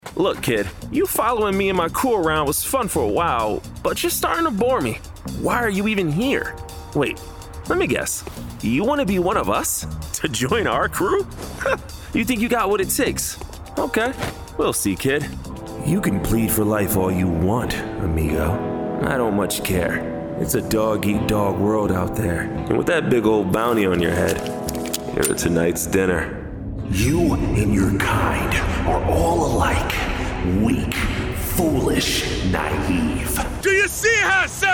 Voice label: Character Demo